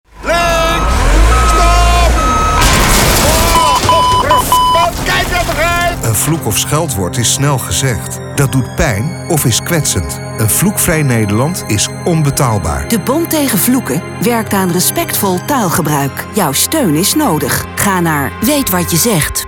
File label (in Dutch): commercial; commercial bond tegen vloeken 2024 ster met url weetwatjezegt